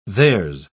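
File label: "there's", British English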